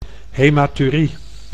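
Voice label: "hematurie", Dutch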